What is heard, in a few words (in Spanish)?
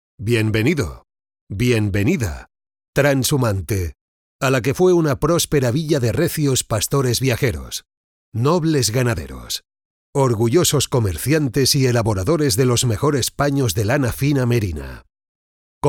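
otros España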